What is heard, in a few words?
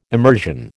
"emersion", American